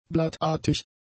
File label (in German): "blattartig", German; adjectives